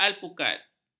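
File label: "alpukat", Malay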